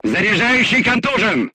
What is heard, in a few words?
voice message charger contused, crew tanks russian